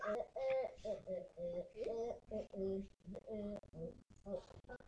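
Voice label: "jig", spoken word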